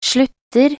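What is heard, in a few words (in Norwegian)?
"slutter", Norwegian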